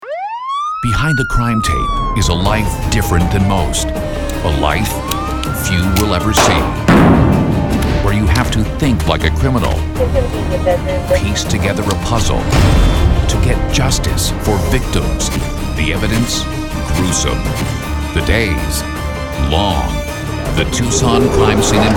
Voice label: TV Promos